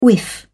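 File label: "whiff", British English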